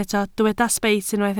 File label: "sbeit", Welsh